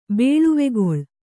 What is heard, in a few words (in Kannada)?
"ಬೇಳುವೆಗೊಳ್", Kannada